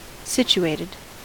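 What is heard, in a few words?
"situated" English, US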